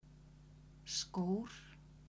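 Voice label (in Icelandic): "skor" Icelandic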